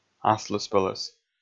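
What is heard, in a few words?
"аслыспӧлӧс", Komi, male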